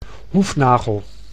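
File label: "hoefnagel", Dutch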